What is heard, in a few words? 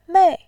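"mei", Mandarin Chinese, fourth tone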